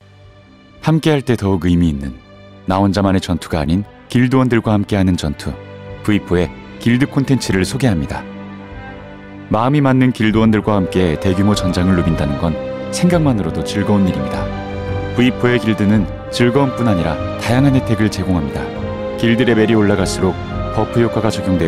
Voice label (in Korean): NA 차분하고 편한